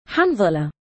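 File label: male "7anZala", Arabic; حَنْظَلَة; ḥanẓala(h); ハンザラ; Hanzalah、Hanzala、Handhalah、Handhala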